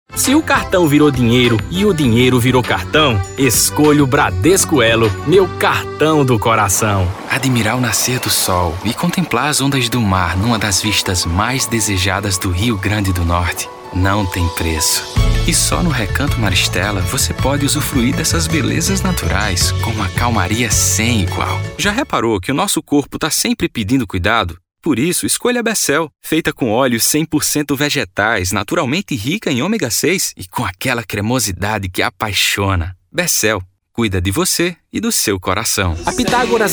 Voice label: Northeastern Accent

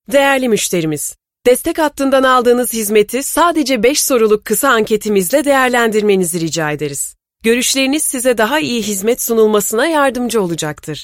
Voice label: IVR

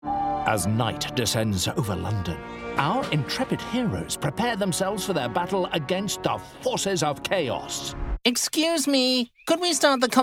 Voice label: Animation

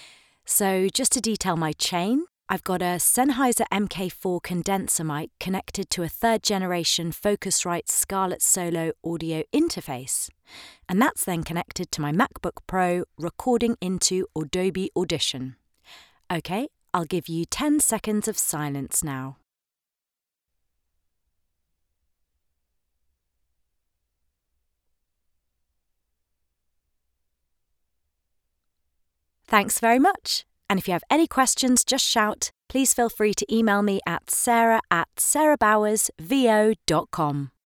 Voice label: Studio Demo

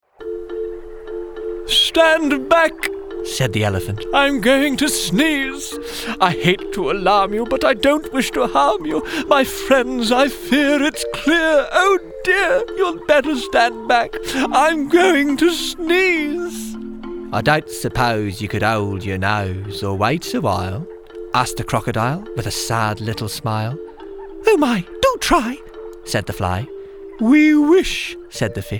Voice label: Character Animation

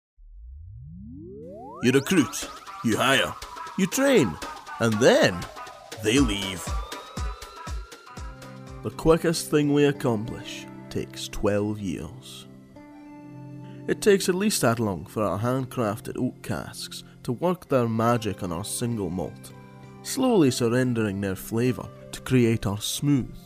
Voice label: Scottish

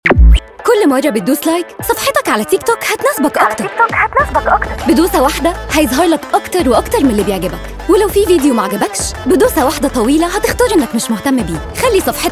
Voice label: Egyptian commercial

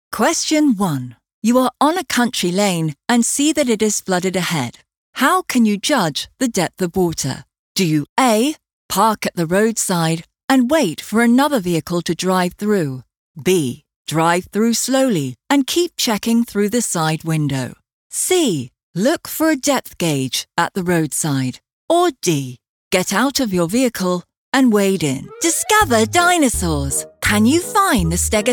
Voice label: UK E-learning

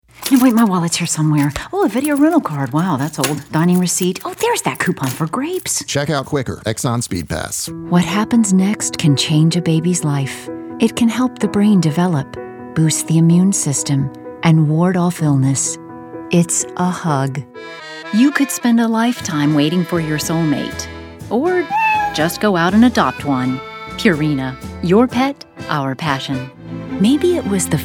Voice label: Commercial VO

